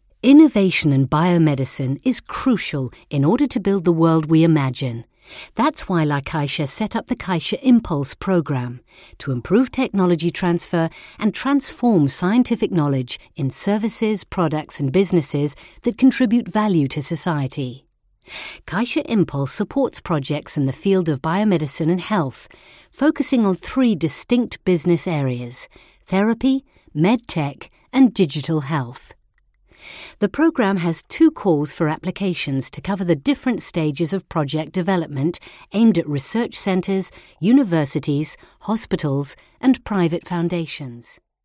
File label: female british english bank comercial; ideasonora